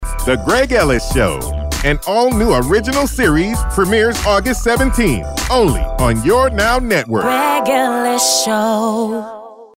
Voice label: Announcer Spokesperson

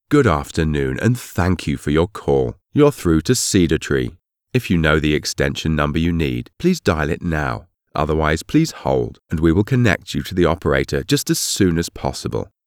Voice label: Old Man Phone; Cedar Tree

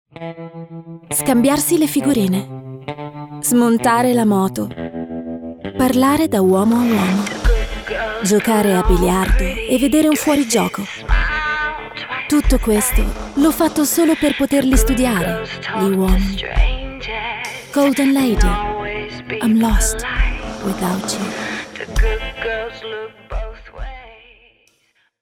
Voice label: Ad Italian; Golden Lady

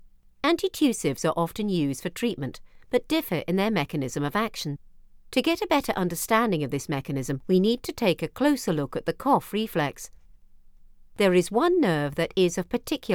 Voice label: medical read

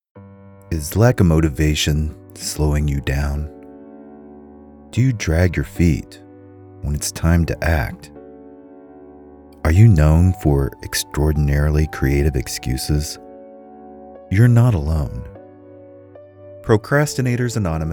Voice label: PSA

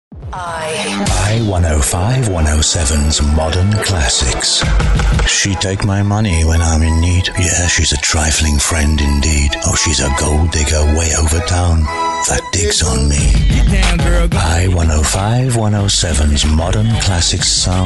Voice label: Radio Ident

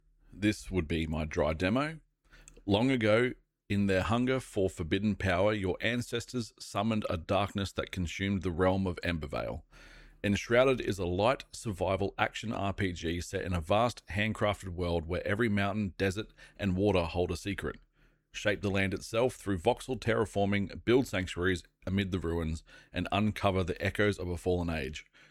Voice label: Dry, male voice over

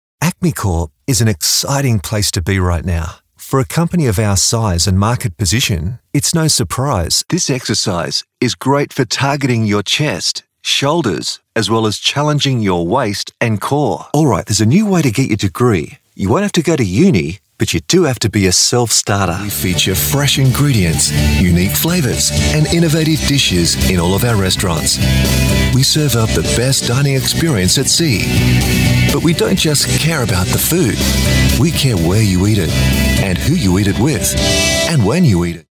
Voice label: Light Conversational

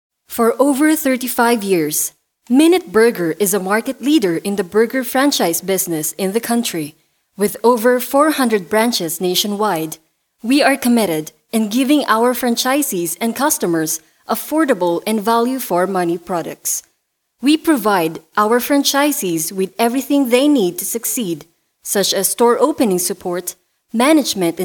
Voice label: Serious Tone; Minute Burger

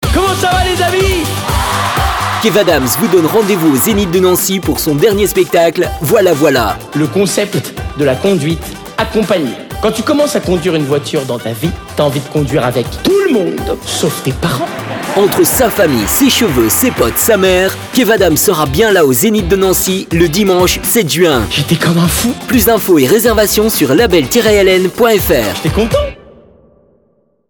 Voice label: spot

